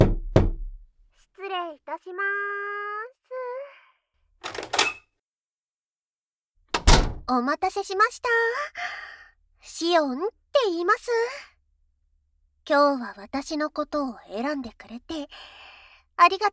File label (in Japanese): 入店～オイルマッサージ（囁きブースト 効果音大きめ）